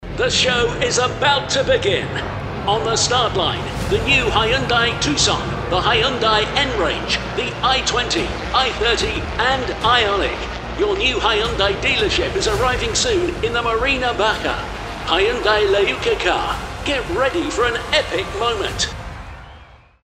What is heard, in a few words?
Car Radio Advert; HYUNDAI